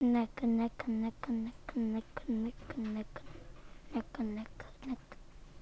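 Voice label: "neck", spoken word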